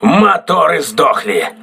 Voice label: voice message lost propulsion, russian

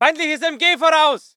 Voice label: voice ger infantry

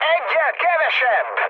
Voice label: player killed enemy, mood high, speech hun

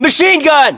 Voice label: US threat mg, battlechatter, english

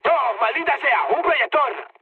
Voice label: searchlite, mood high, speech; War Thunder

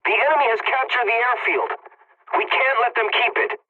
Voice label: pilot capture ground enemy, english; War Thunder

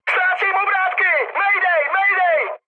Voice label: damaged engine, speech cze, mood high; War Thunder

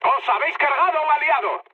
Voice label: player killed ally, mood high, speech

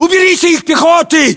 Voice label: order attack infantry, battlechatter, ru; localized english

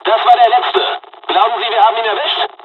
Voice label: dialog, dialogs german; War Thunder; midway

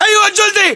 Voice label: rank private, localized english battlechatter

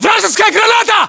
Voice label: inform incoming grenade, battlechatter, ru

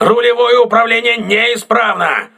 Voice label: voice message rudder damaged, crew ships russian

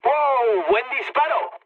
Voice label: player killed enemy, mood low, speech

